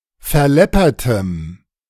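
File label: "verläppertem", German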